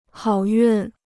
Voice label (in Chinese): "好运", Chinese